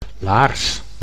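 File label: "laars", Dutch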